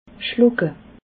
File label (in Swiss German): "schlucke", alsace